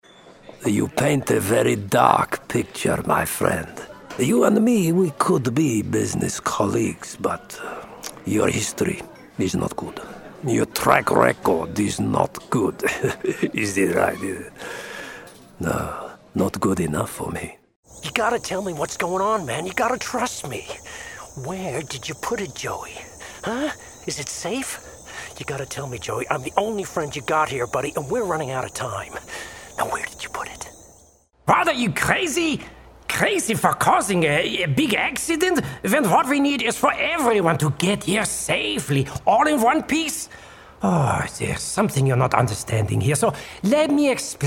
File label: Game Voices International Accents